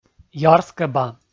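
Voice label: "ярскӧба", Komi, male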